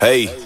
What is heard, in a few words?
"hey", voice